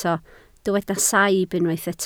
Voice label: "saib", Welsh